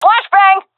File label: throwing flashbang, vo fem; agents; Source Model Packs; Counter Strike Model Pack; CS2